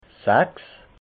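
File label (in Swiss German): "sechs", Alsatian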